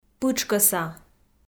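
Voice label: "пытшкӧса", Komi, female; kpv audio dict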